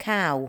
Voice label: "cow", english

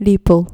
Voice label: "lepel", Dutch

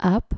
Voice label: "aap" dutch

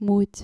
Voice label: "mood", dutch

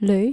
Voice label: "lui", Dutch

